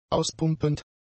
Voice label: "auspumpend", German; adjectives